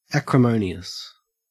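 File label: "acrimonious", Australian English; pronunciation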